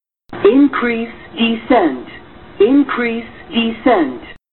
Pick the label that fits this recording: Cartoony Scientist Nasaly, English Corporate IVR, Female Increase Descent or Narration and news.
Female Increase Descent